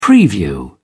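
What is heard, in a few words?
"preview", British English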